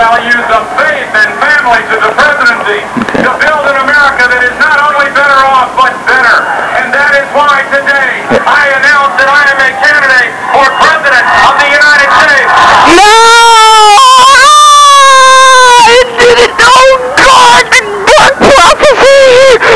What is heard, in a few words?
gore announce